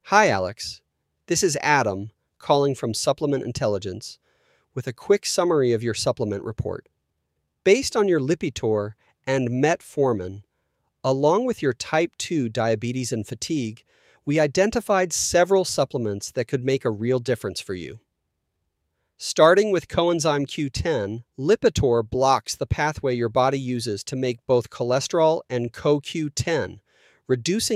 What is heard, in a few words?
voicemail